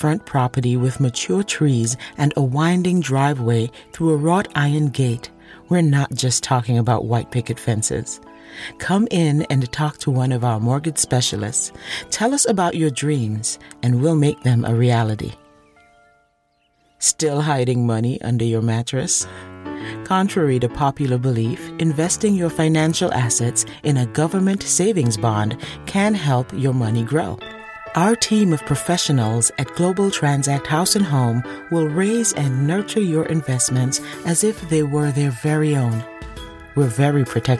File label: commercial